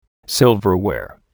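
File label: "silverware", English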